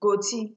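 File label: "goatee", Nigerian Pidgin, female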